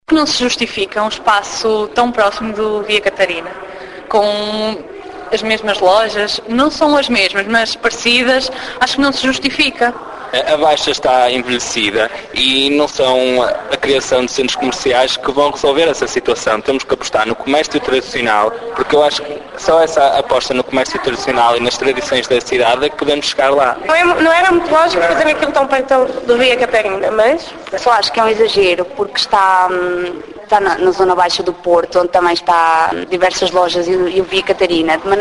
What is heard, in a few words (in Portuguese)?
vox pop; gran plaza